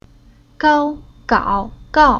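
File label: "Gao", Chinese syllable; Уроки фонетического блока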